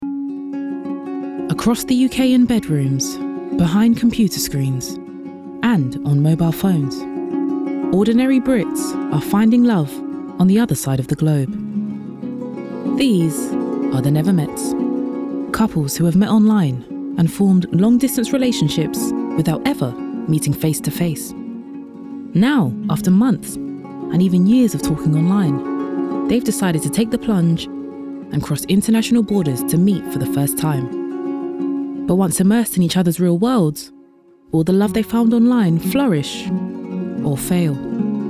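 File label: VO documentary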